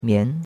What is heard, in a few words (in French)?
"mian2", chinois; Cours de Chinois